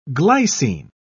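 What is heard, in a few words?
"glycine", English, British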